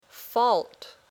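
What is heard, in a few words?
"fault", English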